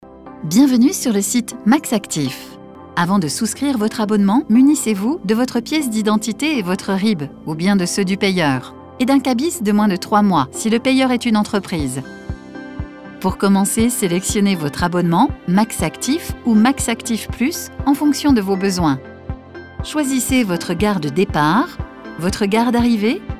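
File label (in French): elearning mode emploi voix off, voix off femme; sncf max actif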